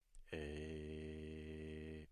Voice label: extra-low tone